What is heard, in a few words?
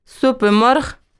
dari Chicken broth